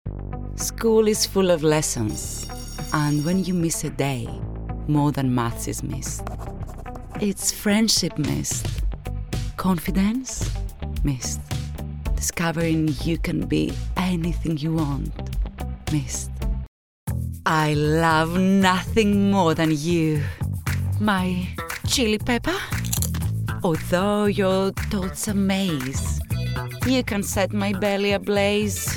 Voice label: female greek english with greek accent commercial compilation